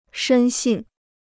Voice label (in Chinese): "深信", Chinese